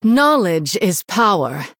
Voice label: Female Human Start; HERO

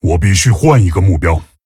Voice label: Male Tauren ERROR TARGET, zh

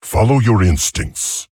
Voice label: Male Tauren Fresh Scent

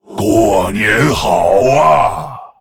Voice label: Male Lich HAPPY NEW YEAR LUNAR; zh

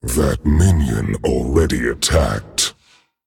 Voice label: Male Dragon, ERROR MINION ATTACKED; Minion exhausted; That minion already attacked.; en; 风暴巨龙迦拉克隆（英雄）

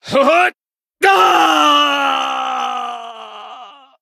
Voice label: Male Blood Elf Death; en

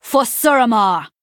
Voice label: Female Nightborne Attack

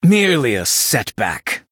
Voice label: Male Blood Elf Concede; en

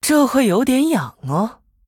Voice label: Female Human TRIGGER Combustion; zh

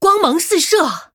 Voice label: Female Human Wow; zh

